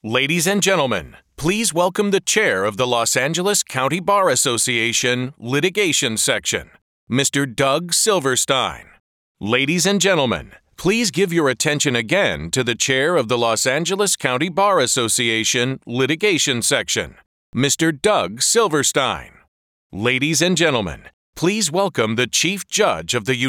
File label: VOG